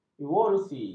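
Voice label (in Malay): "evolusi", Malay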